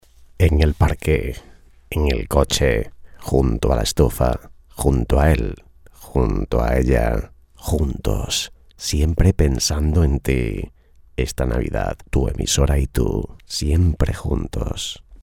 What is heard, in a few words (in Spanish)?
locutores navidad juntos; Mensaje de felicitación navideña para emisoras de radio y de televisión. Número 8. Juntos